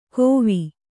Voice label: "ಕೋವಿ", Kannada

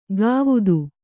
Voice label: "ಗಾವುದು", Kannada

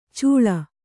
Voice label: "ಚೂಳ", kannada

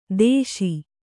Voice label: "ದೇಶಿ", Kannada

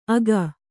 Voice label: "ಅಗ", Kannada

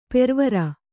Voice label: "ಪೊರವಾರ್", kannada

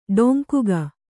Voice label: "ಡೊಂಕುಗ", Kannada

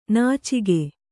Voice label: "ನುಚ್ಚಕ್ಕಿ", kannada

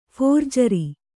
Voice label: "ಫೋರ್ಜರಿ", Kannada

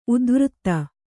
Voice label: "ಉದ್ವೃತ್ತಿ", kannada

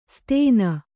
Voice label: "ಸ್ತೇನ", Kannada

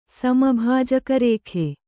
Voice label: "ಸಮಭಾಜಕರೇಖೆ", Kannada

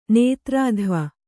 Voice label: "ನೇತೃತ್ವ", kannada

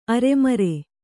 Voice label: "ಅರೆಮರೆ", kannada

